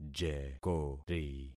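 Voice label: "jekori", concatenated syllables; Affixing experiment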